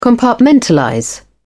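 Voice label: "compartmentalize", British English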